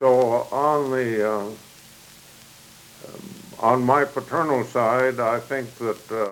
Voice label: Oral history with 73 year old male Meeker County Minnesota, american english dialect